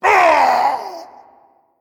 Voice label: Male Titan Keeper Death